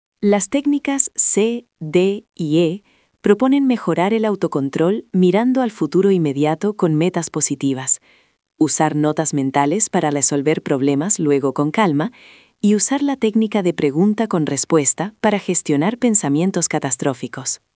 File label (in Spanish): locucion